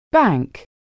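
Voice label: "bank", English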